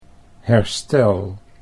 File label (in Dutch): "herstel", Dutch